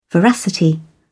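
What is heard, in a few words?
"veracity", British English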